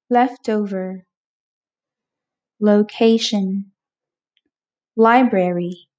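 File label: Three Syllable Initial l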